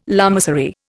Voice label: "lamasery", Indian